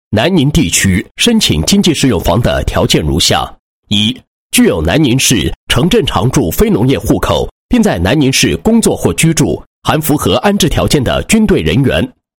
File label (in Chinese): 男 公告 申请经济适用房条件; 某地区申请经济适用房条件